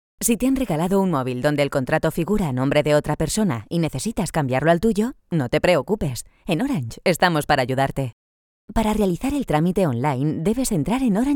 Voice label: Spanish Presentation Friendly

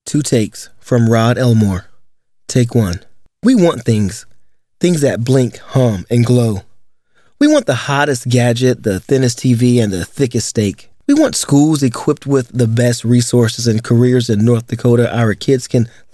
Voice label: Dry Read

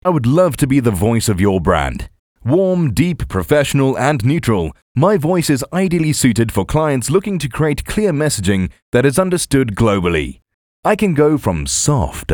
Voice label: Generic 60s Clean VO Intro

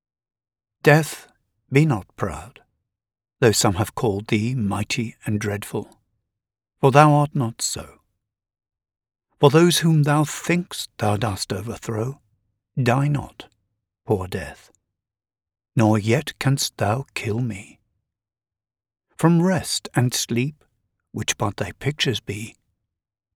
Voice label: Poem studio sound

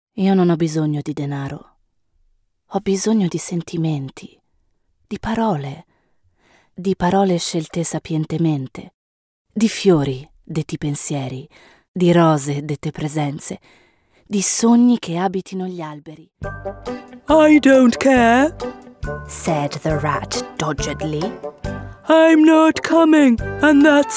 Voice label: Narratives

